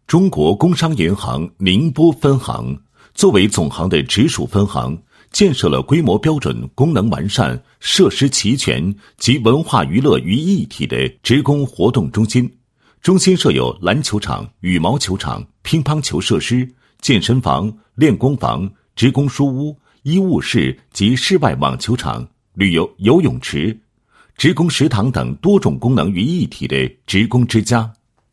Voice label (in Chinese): 男, 银行宣传; 工行宁波分行职工之家简介